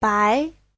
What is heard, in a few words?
"bai", Chinese